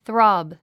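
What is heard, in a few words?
"throb", English word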